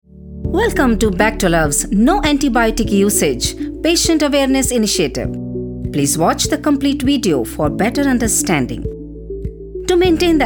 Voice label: Eng; Bactolav

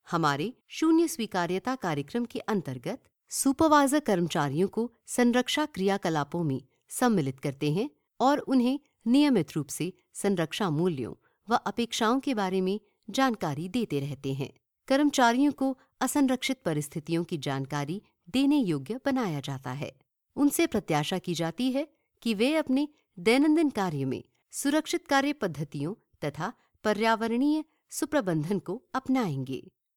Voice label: Hindi, Explainer Video

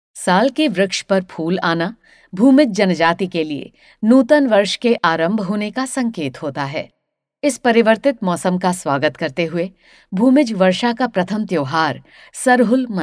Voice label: Narration, Hindi

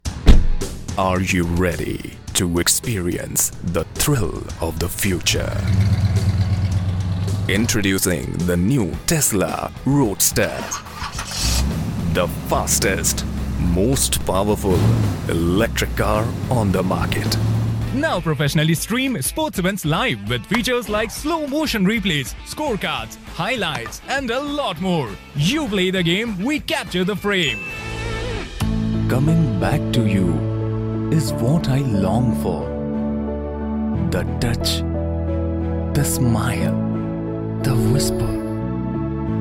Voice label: Ad English, Hindi and English Voice Over

